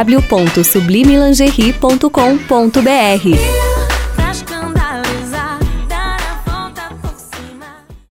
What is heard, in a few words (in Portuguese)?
spot para lingerie; sublime